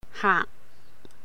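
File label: "haak", Cantonese; customer; 客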